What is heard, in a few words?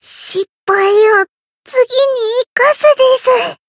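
Voice win barely, Japanese; loveli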